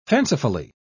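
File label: "fancifully", British English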